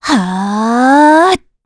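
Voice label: Vox Casting, Korean; Valance; kings raid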